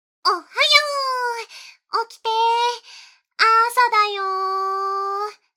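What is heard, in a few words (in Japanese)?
目覚まし（元気よく）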